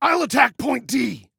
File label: ATTACK, Male